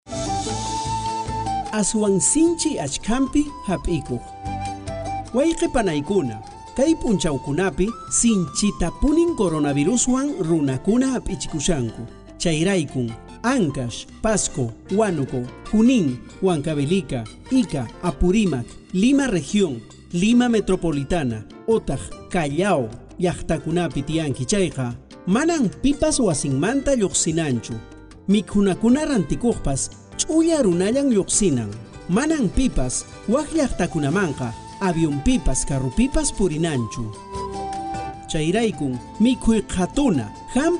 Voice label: quechua cusco collao nuevas medidas extremo, spot de radio; precauciones al salir de mi comunidad

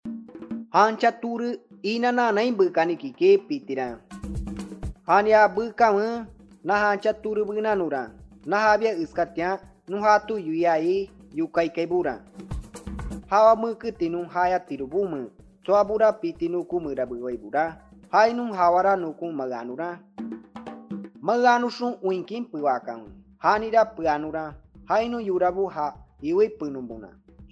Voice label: cashinahua 1 voz, spot de radio; medidas de prevencion; entrega de bienes y alimentos

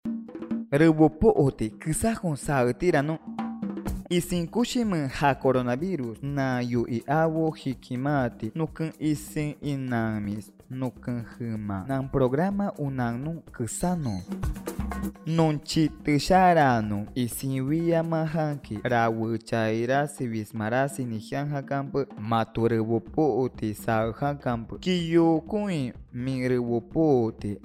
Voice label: amawaka uso de mascarilla 1 voz, spot de radio; medidas de prevencion

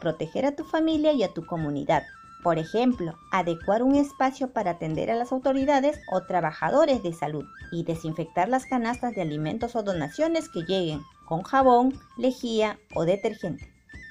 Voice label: castellano no permitas el coronavirus, spot de radio; que es el coronavirus; pista amazonica

